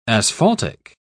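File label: "asphaltic", English, British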